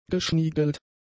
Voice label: "geschniegelt", German; adjectives

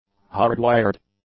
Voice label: "hard-wired", English; adjectives

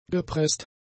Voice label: "gepresst", German; adjectives

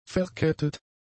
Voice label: "verkettet", German; adjectives

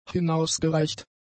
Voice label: "hinausgereicht", German; adjectives

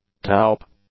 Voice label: "taupe", English; adjectives

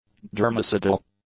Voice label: "germicidal", english; adjectives

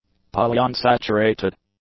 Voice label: "polyunsaturated", english; adjectives

